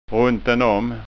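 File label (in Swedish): "runtenom", Skåne dialect Swedish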